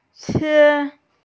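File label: "que1" Chinese, female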